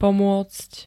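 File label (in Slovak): "pomôcť", Slovak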